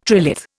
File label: "trilith", Indian